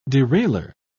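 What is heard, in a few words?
"derailleur", English, British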